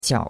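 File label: "qiao3" Mandarin Chinese syllable, third tone; Cours de Chinois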